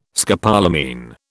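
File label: "scopolamine", British English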